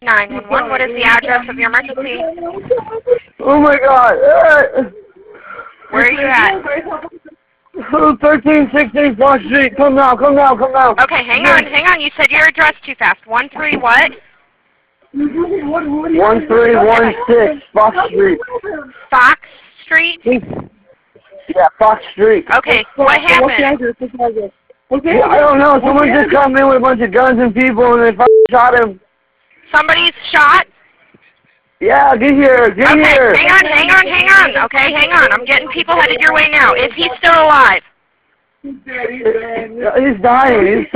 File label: 911 call; Findlay Homicide